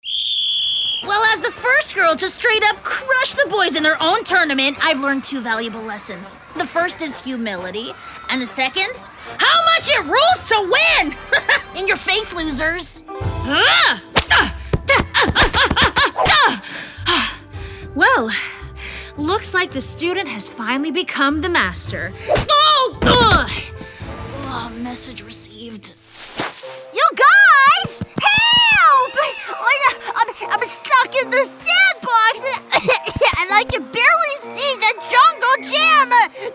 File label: animation English, voice actor